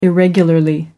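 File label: "irregularly", British English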